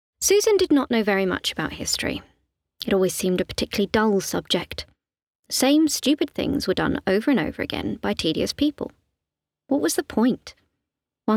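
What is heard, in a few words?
Female Narrative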